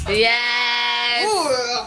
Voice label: yes group

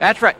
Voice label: that's right male

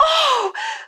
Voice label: "oh", Voice; ooh ahh

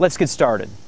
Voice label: let's get started male; Voice; introductions

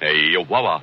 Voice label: "aye ya wallah", gibbrish; Voice

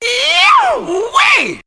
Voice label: "yowee", voice

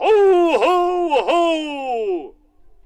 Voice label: ho ho ho jolly

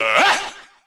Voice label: "uhah", Voice; ooh ahh; uh um

